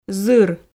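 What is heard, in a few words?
"зыр", Komi, female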